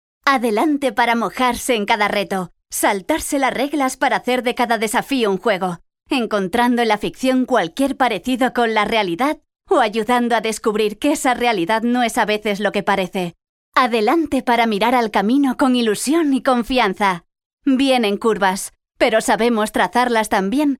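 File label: spanish female commercial; voice demos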